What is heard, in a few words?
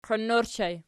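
"qiin" ergative plural, Archi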